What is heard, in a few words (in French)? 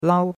"lao1", chinois; Cours de Chinois